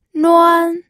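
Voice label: "nuan", first tone, pinyin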